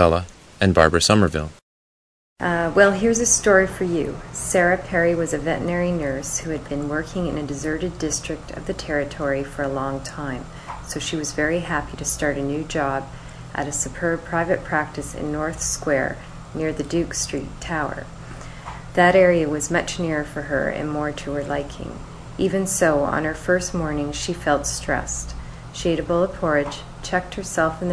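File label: ontario, International Dialects of English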